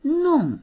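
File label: "nong4", Chinese; 弄; 弄る、する、もてあそぶ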